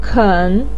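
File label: "ken", Mandarin pinyin, third tone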